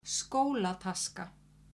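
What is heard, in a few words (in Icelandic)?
"skólataska", Icelandic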